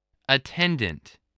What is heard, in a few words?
"attendant", english